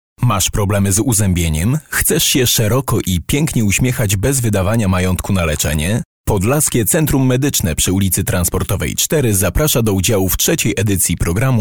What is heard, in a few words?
male polish voice over talent upbeat